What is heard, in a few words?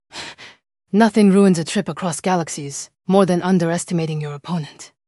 upset; fertig classic games